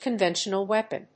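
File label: "conventional weapon", English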